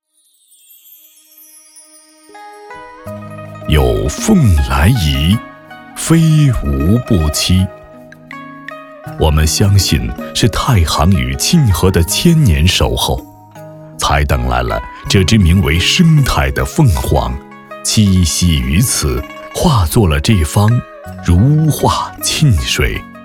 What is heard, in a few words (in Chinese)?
男 专题